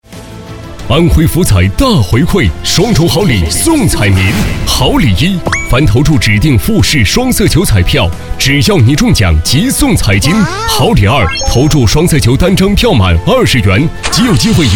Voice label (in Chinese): 广告 促销 双色球营销活动广告 激情, 男